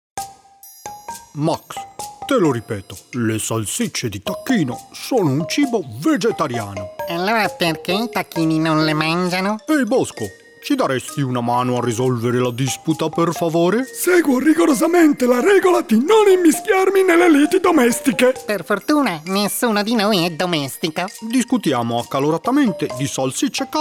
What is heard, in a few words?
Comedic, italian